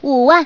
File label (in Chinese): "5 wan", 女, 麻将; 欢乐麻将